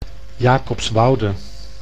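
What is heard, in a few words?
"Jacobswoude", Dutch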